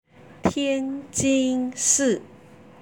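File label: "tianjingshi", Chinese; 天津市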